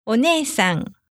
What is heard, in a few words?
"oneesan お姉さん", Japanese